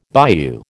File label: "bayou", American